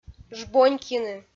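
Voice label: "жбонькйыны", Komi, female; audio dict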